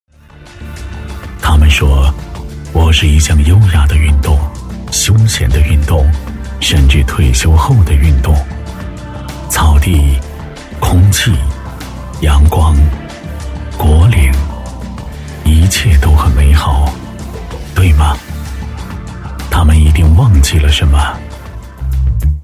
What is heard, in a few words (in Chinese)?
男 广告 浑厚磁性 科技时尚感; 央视大气专题; 高尔夫运动